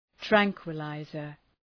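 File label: "tranquillizer", English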